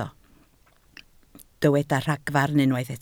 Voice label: "ragfarn", Welsh